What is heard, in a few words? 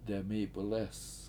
"demIIp71lEEs", Washo; Empty